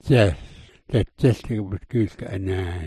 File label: "dzel", smalgyax